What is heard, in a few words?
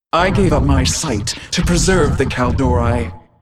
Hero female demon hunter morphed pissed